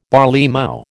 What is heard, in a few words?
"barleymow", American